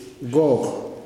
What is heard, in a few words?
"red", kuman